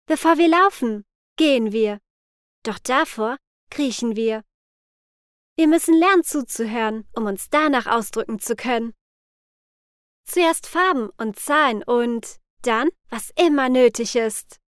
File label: locutora alemana german voiceover